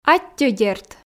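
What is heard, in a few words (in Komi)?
аттьӧ дерт, Komi, female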